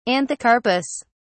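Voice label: "anthocarpous", British English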